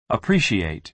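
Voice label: "appreciate", American English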